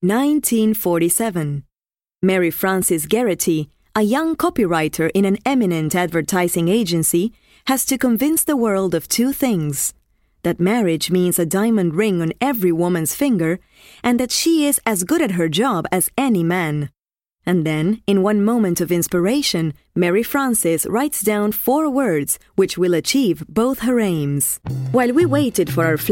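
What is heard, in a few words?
English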